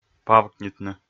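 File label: "павкнитны", Komi, male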